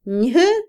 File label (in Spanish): Otomí, mujer; pesado; Percepción; INALI